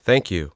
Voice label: Thank you, Adult Male Speech

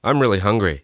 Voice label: I'm really hungry, Adult Male Speech

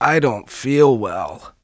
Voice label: I don't feel well, Adult Male Speech

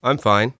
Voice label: I'm fine, Adult Male Speech

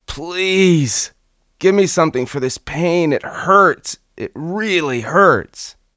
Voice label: Something for this pain, Adult Male Speech

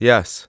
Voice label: "Yes", Adult Male Speech

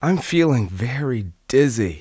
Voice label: I'm feeling very dizzy, Adult Male Speech

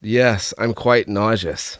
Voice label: I'm quite nauseous, Adult Male Speech

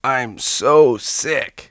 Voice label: I'm so sick, Adult Male Speech

